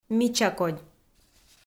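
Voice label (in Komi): "мичакодь", Komi, female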